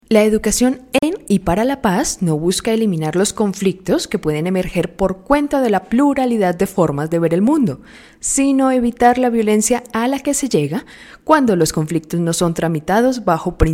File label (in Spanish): voz en off; ¿Que busca la educacion en y para la paz